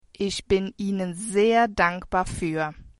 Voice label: thanks, german

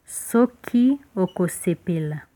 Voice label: please, Lingala

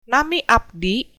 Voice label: my name is, sundanese; Nami abdi ...

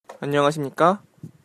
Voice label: good morning, Korean

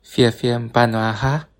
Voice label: goodbye, bonggi

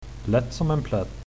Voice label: easy, swedish

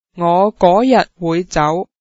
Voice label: ill check out on this date, cantonese

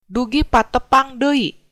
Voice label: goodbye, sundanese

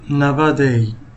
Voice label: goodbye, somali

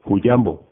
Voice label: hello, swahili

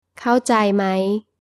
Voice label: do you understand, thai; เข้าใจไหม? (khâo jai mái)

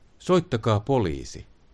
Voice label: police, finnish

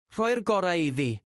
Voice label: throw in the towel welsh; rhoi'r gorau iddi

